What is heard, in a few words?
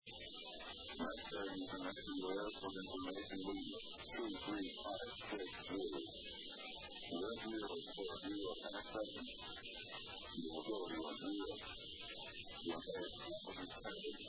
ATIS, USA; LAS